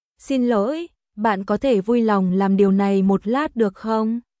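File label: Vietnamese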